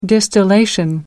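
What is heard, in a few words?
"distillation", British English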